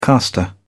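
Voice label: "castor", British English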